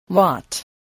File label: "watt", spelling